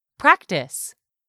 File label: "PRACTICE", English; 3000 words